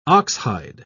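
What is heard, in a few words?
"oxhide", British English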